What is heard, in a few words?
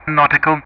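"nautical", English; Ka-50; DCS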